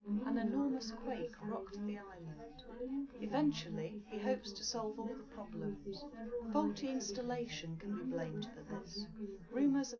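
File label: female, live recording; SiSEC11; underdetermined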